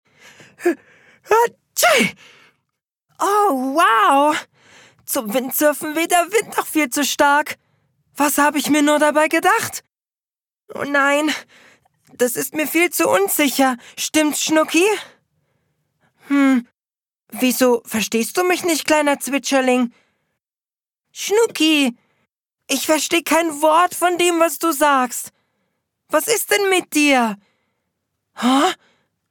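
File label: German, male, character reel; voice over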